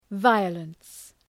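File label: "violence", English word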